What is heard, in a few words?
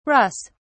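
"russ", British English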